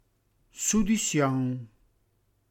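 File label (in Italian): "sudisiòun", Reggiano; soggezione; pudore, senso di vergogna; reggiane; dialetto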